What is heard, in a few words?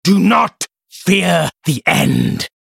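Hero Critical Hit US English, Мужчина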